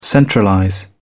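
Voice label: "centralize", British English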